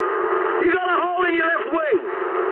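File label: English left wing mood high; speech; War Thunder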